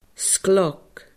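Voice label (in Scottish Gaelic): "sgleog", Scottish Gaelic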